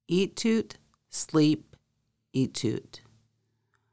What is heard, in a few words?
sleep "ʔitut", Lushootseed; Conversational Lushootseed: Lessons 1-5